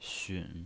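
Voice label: "xun" Mandarin Chinese pinyin voice, third tone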